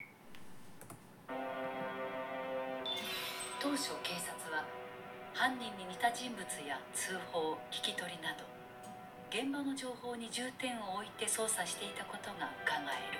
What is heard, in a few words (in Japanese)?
ナレーションサンプル